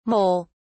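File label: "mole(s)", British English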